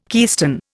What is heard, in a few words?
"keystone", Indian